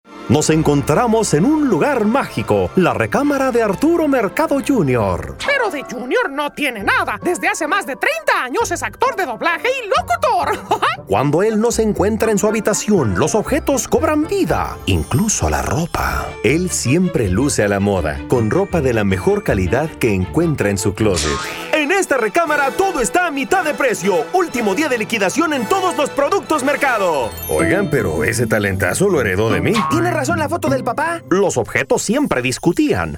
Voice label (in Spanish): Español